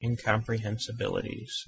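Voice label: "incomprehensibilities", English pronunciation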